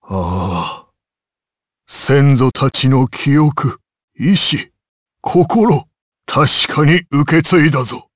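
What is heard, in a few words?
voice, Japanese; Dakaris; kingsraid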